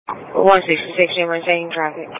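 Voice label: Police Patrol Dispatch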